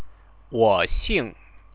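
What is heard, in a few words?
"woxing", Chinese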